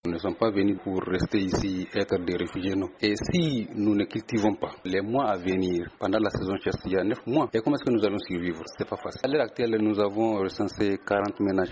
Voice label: FR VOX POP; DEPLACES FANTIO TERA KANDA